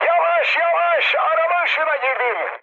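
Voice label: Turkish searchlight, mood high, speech; War Thunder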